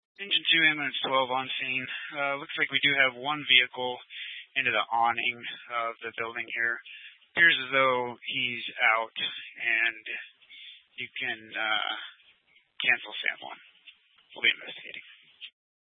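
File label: Fire Dispatch, scanner audio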